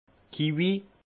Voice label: "kivis", alsace; kiwi; vin

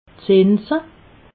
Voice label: "zinse", alsace

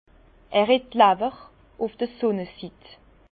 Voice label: "lawwer", Bas Rhin; vin; il un foie exposé au soleil buveur qui le foie jauni cirrhose

